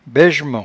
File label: "baijement", patois jura